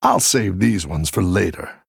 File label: Male Human After Freezing